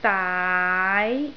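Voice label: "daai2", Cantonese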